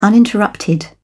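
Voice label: "uninterrupted", British English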